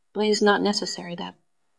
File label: VoiceActing es F